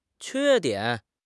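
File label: "que1 dian3", Mandarin Chinese; HSK4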